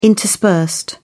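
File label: "interspersed", British English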